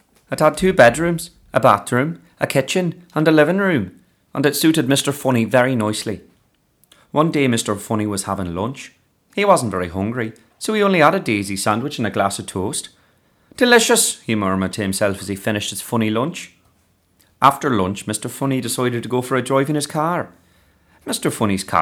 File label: Irish Accent